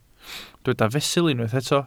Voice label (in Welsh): "fesul", Welsh